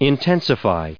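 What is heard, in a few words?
"intensify", US English pronunciation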